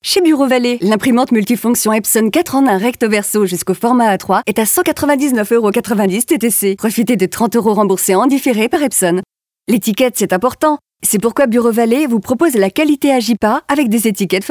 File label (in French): spots radio; BUREAU VALLEE